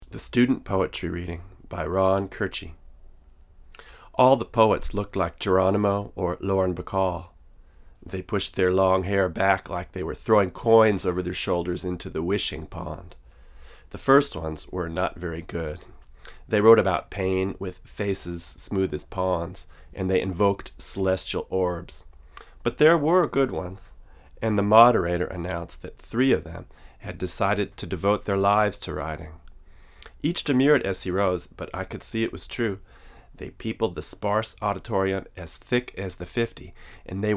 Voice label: Fig 8 VO, Neumann KM88; Fig. 8 front side